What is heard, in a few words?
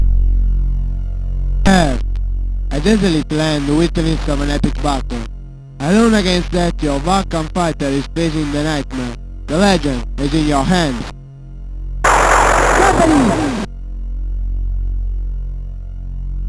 speech, MOS6581R3; Catalypse